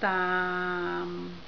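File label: "daam6", Cantonese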